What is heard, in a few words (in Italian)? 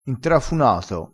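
"intrafunato", crespinese dialettale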